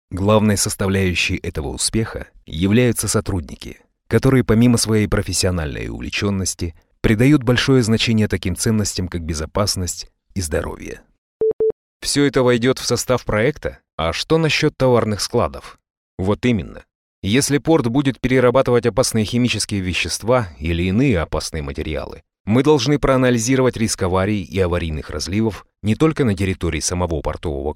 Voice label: russian corporate videos